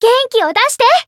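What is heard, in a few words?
Voice heal, Japanese; tsukiha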